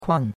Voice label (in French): "kuan4", chinois; Cours-de-Chinois